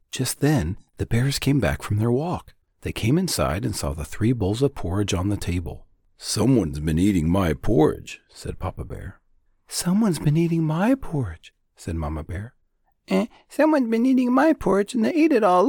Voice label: Kids story